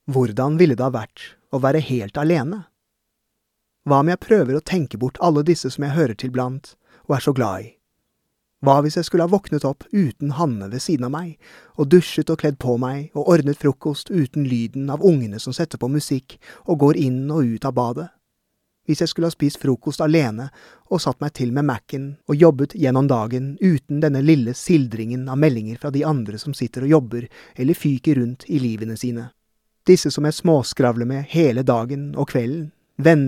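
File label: Norwegian Audiobook Male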